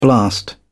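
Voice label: "blast", British English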